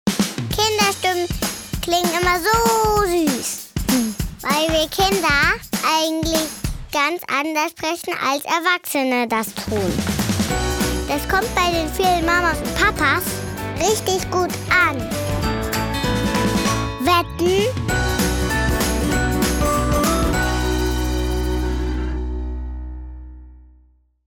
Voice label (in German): Werbung